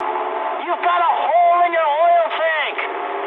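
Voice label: damaged oil mood high, speech English; War Thunder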